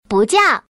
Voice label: "bujiao", woman